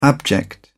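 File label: "abject", British English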